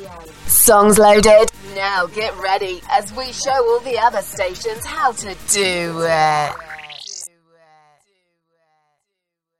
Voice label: Radio Imaging; Voice-over demos